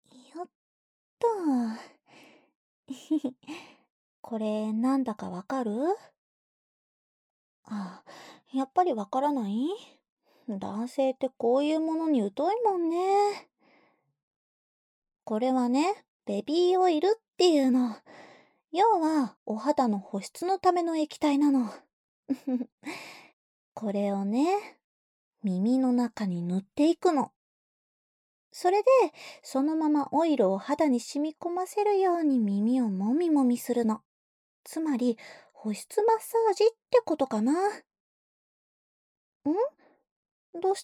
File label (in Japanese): お耳の中、くちゅくちゅしてあげるねー。（オイルマッサージ, ASMR